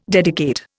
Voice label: "dedicate", Indian